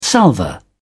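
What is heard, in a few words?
"salver", British English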